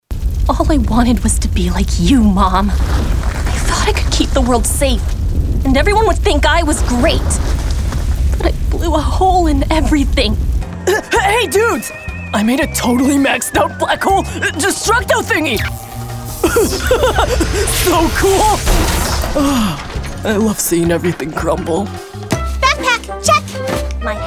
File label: animation VO